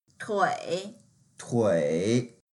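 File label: "tui3", Mandarin Chinese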